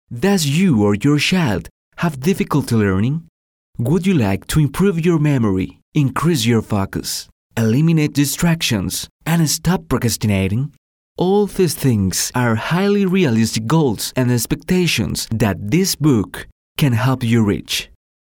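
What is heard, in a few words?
Spanish Latin America male voice over, English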